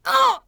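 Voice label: Twi female damage; XBOX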